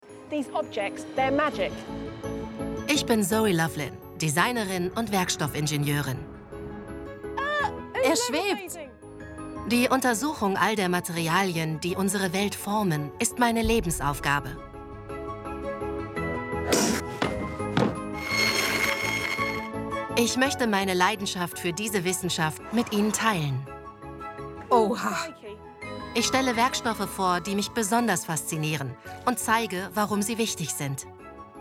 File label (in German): Doku Kommentar; BBC